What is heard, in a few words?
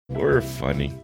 quietly saying youre funny